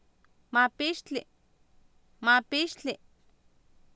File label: "maapiixtli", Oapan Nahuatl, female; Oapan minimal pairs 2000